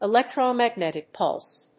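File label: "ELECTROMAGNETIC PULSE", English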